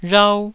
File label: "rhau1", taiwanese